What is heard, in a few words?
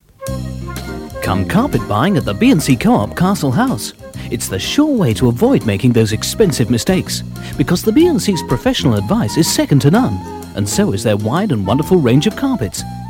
Radio Hallam Advert; B & C Carpets; B & C Coop